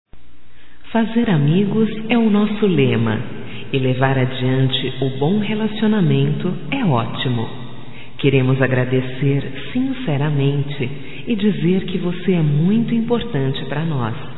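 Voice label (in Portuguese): voz, Obrigado Pela Preferência, female, Telemensagens; Telemensagens 2017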